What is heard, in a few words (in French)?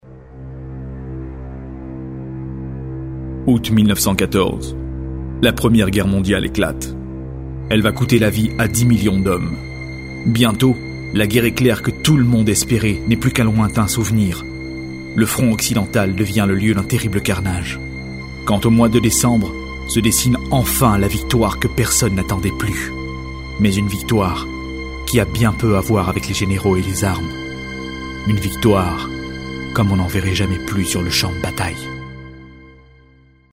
Documentaire A Voix Serieuse Et Impliquee